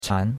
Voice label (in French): "chan2", chinois; Cours-de-Chinois